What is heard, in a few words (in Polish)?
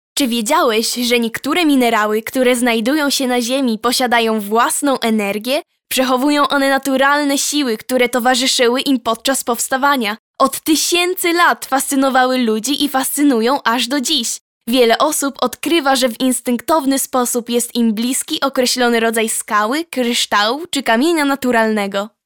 Reklama, kobiecy